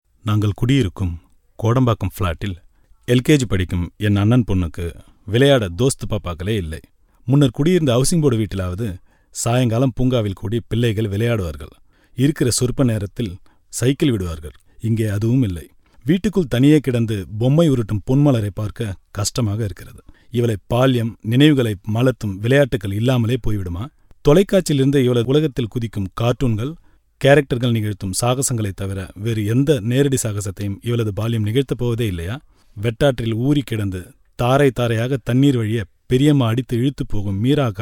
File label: Tamil